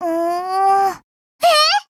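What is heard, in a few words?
Voice, Japanese; Sharkry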